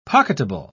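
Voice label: "pocketable", British English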